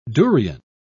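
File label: "durion", British English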